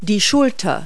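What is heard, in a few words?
"shoulder", English word; Multi-Lingual Talking Picture Dictionary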